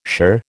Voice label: "shirr", American